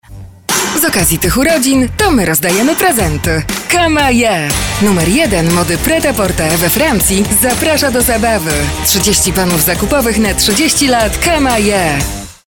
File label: Female VO Commercial